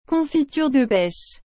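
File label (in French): "confiture de pêche", French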